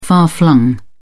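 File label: "far-flung", British English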